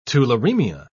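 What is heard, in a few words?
"tularemia", British English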